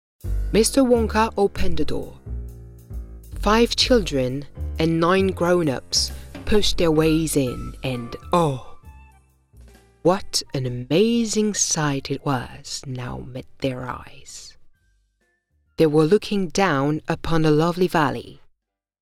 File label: Narrative